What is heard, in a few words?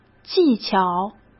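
"技巧", Mandarin Chinese; chinese flashcards; hsk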